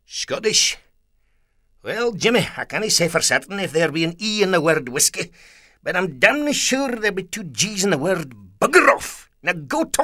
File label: SCOTTISH